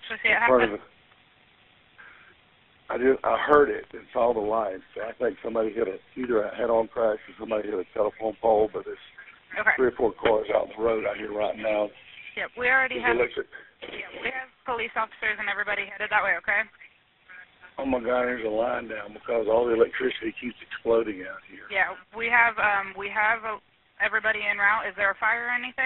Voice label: 911 call car wreck; UGA FOOTBALL